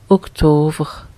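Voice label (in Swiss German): "Oktower", Alsatian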